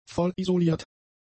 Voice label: "vollisoliert", German; adjectives